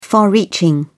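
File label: "far reaching", British English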